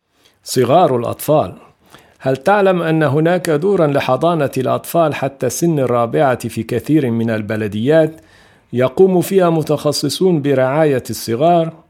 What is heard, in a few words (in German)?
arab